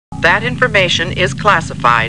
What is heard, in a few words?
Computer Voice; Star Trek